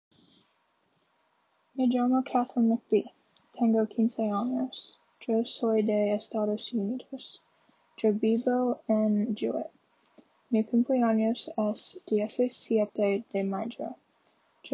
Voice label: spanish recording